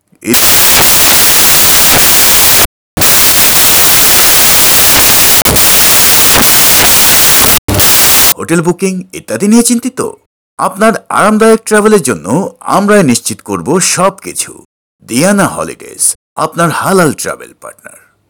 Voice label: voiceover